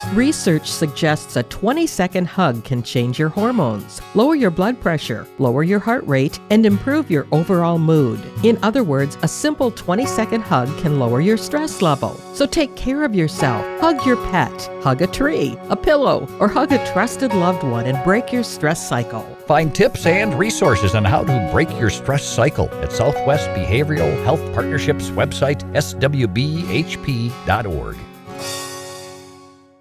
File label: Female